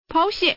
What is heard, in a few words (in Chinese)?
"pao", woman; mahjong paohuzi; youxian